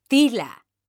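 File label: "tila", Spanish, female; mekanta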